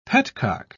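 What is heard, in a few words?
"petcock", British English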